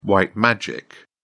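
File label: "white magic", British English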